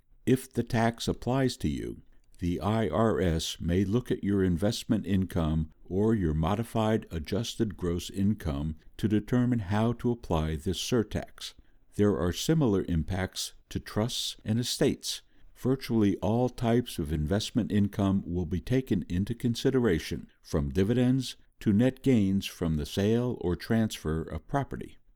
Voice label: Podcast